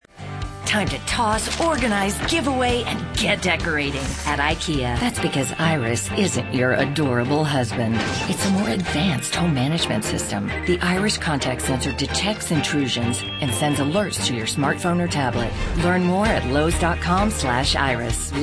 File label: female, late30s early40s clean